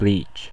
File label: "bleach", us